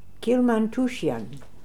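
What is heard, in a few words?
"Kilmantooshian", pronunciations; Fourth Way; Beelzebub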